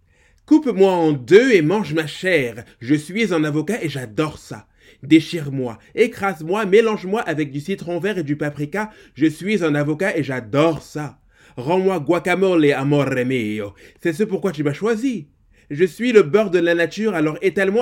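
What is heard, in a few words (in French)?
Extrait "Les pigeons" (narration)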